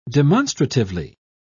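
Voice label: "demonstratively", British English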